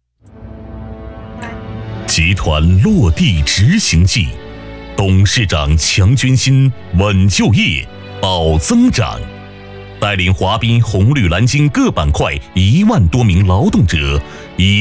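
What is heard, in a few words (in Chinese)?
男【宣传】企业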